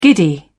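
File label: "giddy", British English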